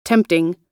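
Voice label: "tempting", US English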